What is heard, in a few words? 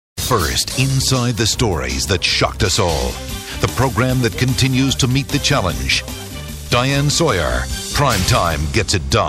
News; tv affiliate